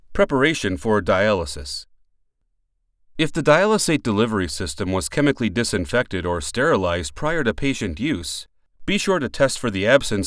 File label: Instructional